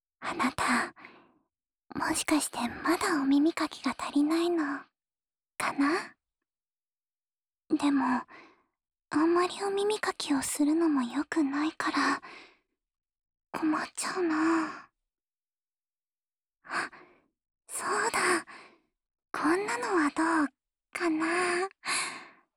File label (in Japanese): オノマトペ(カシカシボイス)(右), ASMR